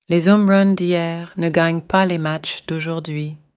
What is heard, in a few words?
french; fr-french